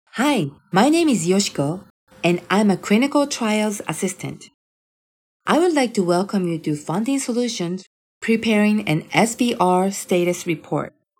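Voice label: Japanese English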